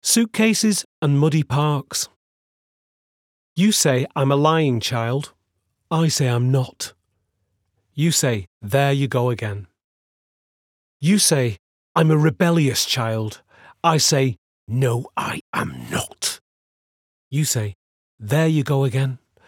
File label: Poetry; Suitcases